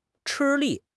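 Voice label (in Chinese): "吃力", Chinese; HSK6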